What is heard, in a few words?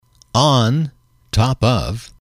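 "on top of", English, male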